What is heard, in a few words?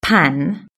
"pan", British English